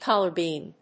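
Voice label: "collar beam", English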